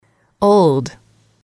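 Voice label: "old", English, female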